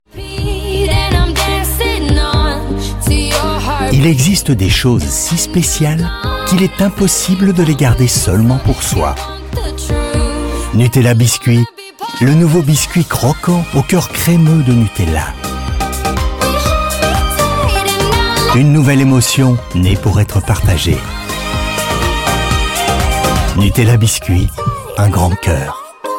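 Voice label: male, French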